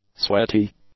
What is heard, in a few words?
"sweaty", English; adjectives